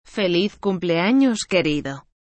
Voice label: happy birthday my dear spanish